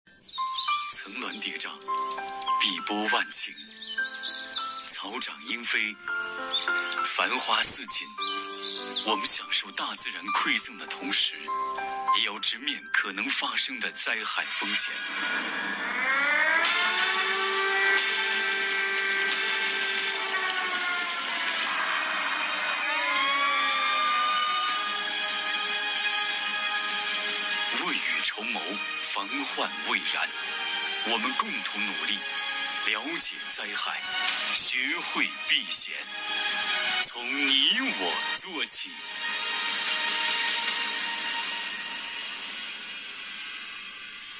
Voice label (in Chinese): 男 防灾减灾公益广告; CCTV1